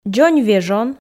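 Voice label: "дзонь вежон", Komi, female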